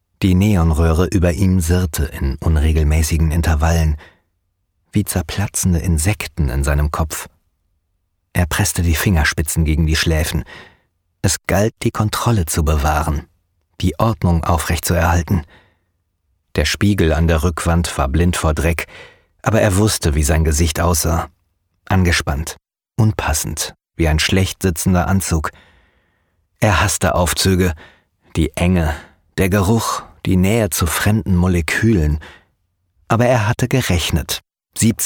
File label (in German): Psychothriller, hoerbuch